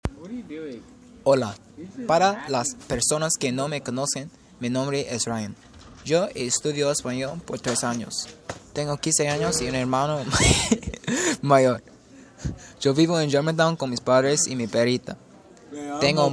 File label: spanish recording